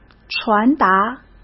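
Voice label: "传达", Mandarin Chinese; hsk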